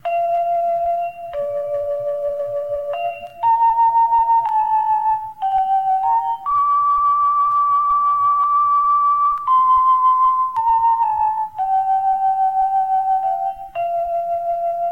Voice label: elwa radio sign on; give the winds a mighty voice